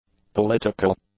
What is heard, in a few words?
"political", English; adjectives